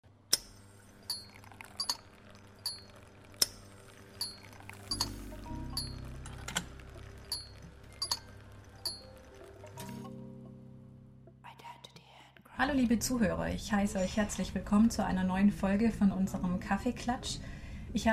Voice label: Corporate Podcast; Kaffeeklatsch